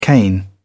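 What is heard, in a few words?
"cane", British English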